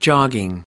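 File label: "jogging", American English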